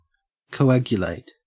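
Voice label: "coagulate", Australian English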